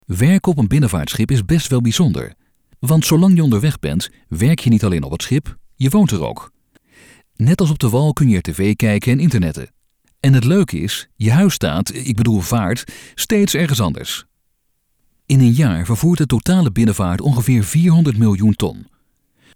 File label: dutch e-learning